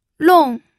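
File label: "long", fourth tone, pinyin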